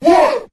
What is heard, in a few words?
what group of men; ooh ahh